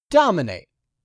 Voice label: "dominate", English; 3000 words